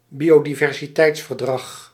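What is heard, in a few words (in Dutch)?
"biodiversiteitsverdrag", Dutch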